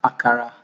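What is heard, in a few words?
"akara", Nigerian Pidgin, male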